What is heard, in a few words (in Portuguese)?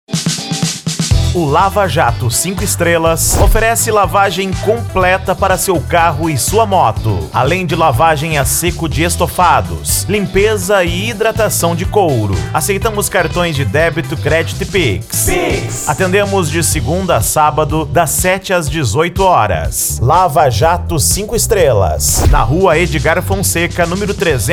Locutor; Lava A Jato 5 Estrelas Aimores MG